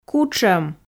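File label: "кутшом", Komi, female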